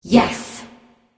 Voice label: emote yes; smite; arachne

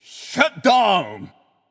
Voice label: alert notify kill streak breaker, japanese; caster

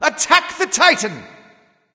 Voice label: vox attack m; smite; cupid

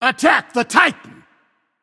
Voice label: vox attack m; smite; khepri

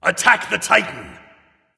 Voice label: Attack M; smite; hades

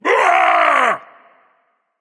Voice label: battlecry, voice; Smite; ymir skin14

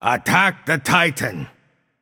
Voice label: vox attack m; smite; bacchus

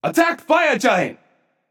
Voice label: vox attack; smite; raijin; voicepack

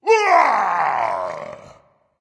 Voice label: battlecry dragon; fafnir

